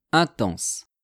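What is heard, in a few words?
"intense", French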